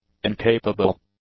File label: "incapable", English; adjectives